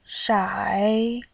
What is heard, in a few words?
"shai3", voice